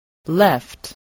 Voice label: "left", English